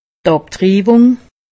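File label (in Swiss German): "abtriwung", Alsatian; medecine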